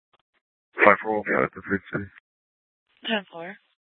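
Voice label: police department dispatch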